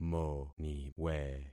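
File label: "moniwe", concatenated syllables; Affixing experiment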